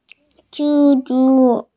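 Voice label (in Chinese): "zhi1zhu1", Mandarin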